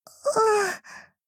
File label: shy